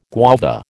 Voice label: "guava", American